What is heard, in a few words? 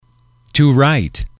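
to write, English, male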